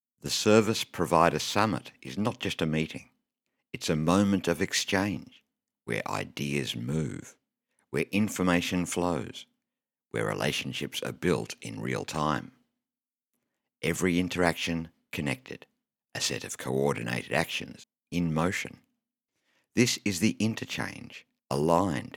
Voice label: audition VO